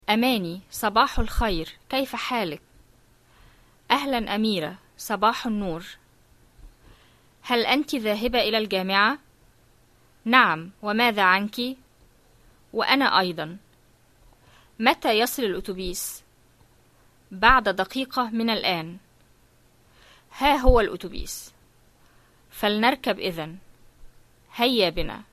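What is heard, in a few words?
Modern Standard Arabic greetings, dialogues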